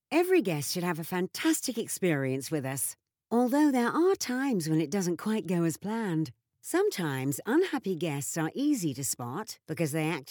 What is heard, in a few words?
chatty announcer